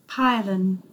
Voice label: "pylon", English